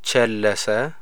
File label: diluted, amharic